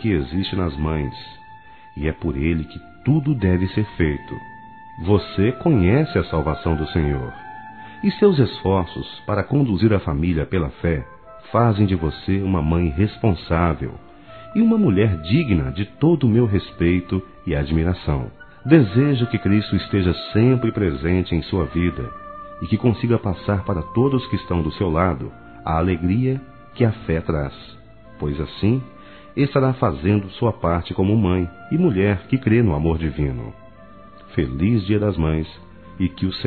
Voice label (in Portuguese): CONFORTO P MÃE GERAL, Masc; Dia das Mães; Telemensagens 2017